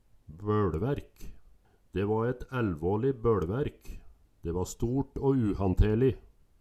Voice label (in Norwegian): boLvaerk, Numedalsmål